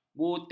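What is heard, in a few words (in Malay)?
"butik", Malay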